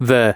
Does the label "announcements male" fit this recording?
Yes